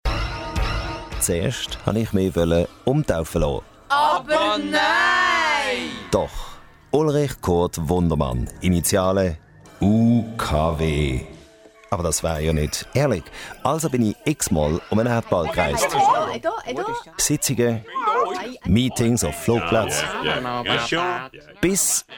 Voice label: SPOT